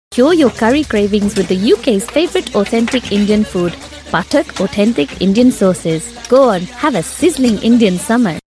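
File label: Gujarati, Accented English; GoLocalise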